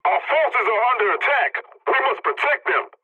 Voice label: pilot point under attack, english; War Thunder

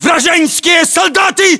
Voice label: threat infantry generic, english; localized english

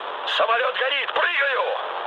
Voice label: on fire, mood low, speech ru; War Thunder

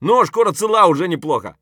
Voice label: scav mutter, voice; Escape from Tarkov; scav5

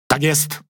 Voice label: Speech Quick Commands Aye Aye, PL; World Of Warships

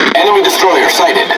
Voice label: Speech Detection Enemy Destroyer, EN; World Of Warships

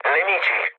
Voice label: enemy detected mood low, speech it; War Thunder

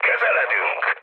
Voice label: near waypoint, mood high, speech hun; War Thunder

